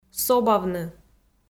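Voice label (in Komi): "собавны", Komi, female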